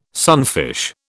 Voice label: "sunfish", British